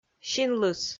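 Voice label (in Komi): "синлыс", Komi, female; kpv audio dict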